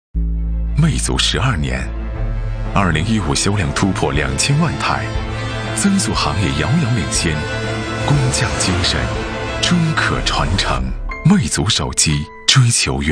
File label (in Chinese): 国语男 广告 科技产品类; 魅族手机12年工匠精神—央视春晚首个弹幕广告